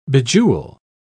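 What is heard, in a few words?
"bejewel", British English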